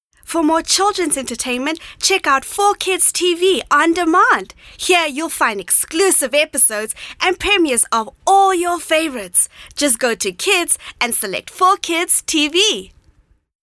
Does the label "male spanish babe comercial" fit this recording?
No